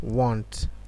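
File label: "want", Nigerian Pidgin, male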